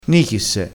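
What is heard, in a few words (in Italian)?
"nichisse", dialetto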